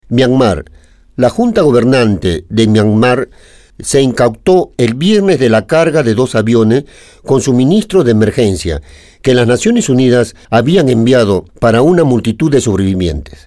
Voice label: spagnolo impostata, speakers

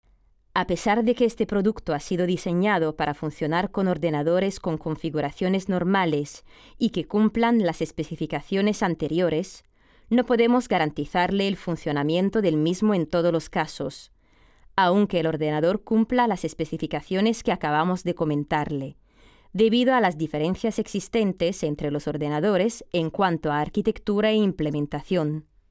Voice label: SPAGNOLO IMPOSTATA, speakers

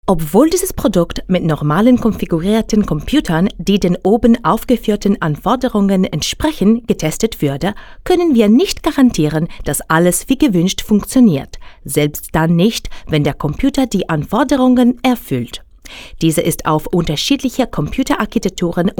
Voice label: TEDESCO; FEMMINILE; Speaker Madrelingua

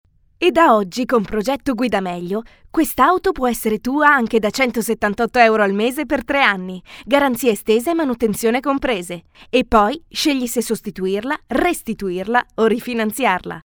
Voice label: dinamica, italiano; FEMMINILE; Speaker Madrelingua

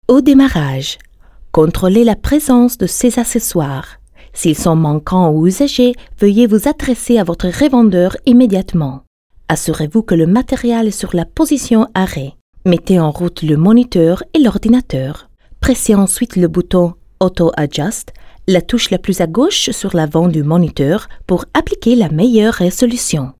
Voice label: FRANCESE IMPOSTATA

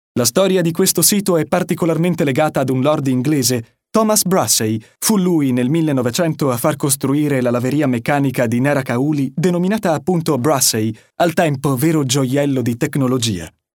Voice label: ITALIANO normale, SPEAKER

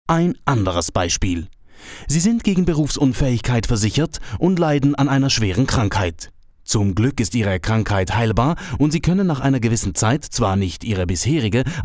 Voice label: tedesco impostata, speaker

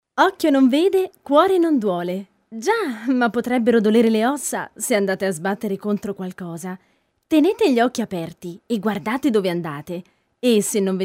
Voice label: ITALIANO DINAMICA

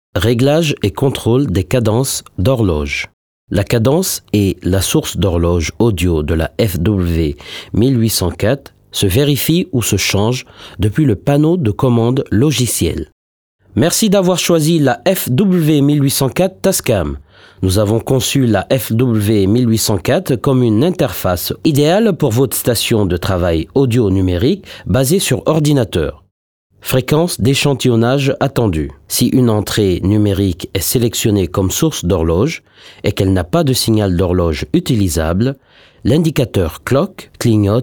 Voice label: FRANCESE IMPOSTATO, SPEAKER

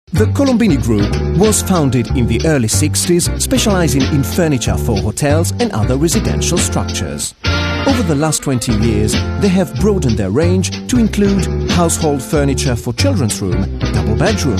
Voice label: INGLESE, Speaker Madrelingua

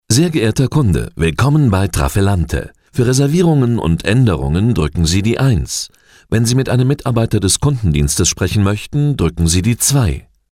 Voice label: tedesco normale

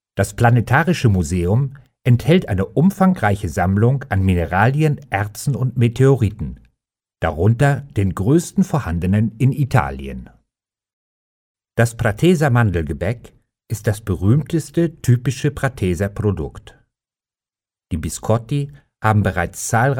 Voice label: TEDESCO normale

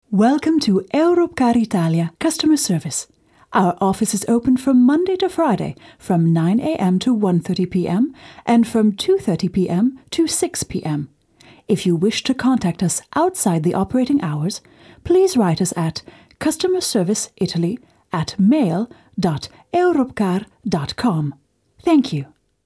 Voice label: INGLESE AMERICAN IMPOSTATA

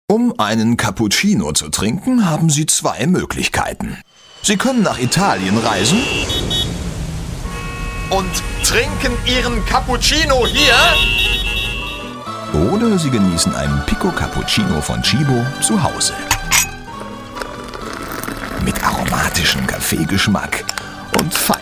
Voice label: tedesco normale

